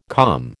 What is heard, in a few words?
"calm", American